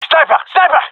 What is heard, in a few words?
sees sniper, gendarmerie male; vo; agents